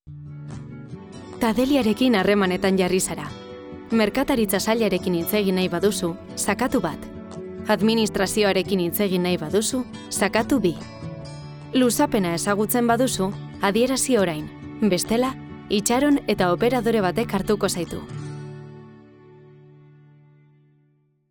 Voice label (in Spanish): Euskera